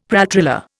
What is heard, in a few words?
"pralltriller", Indian; Dictionary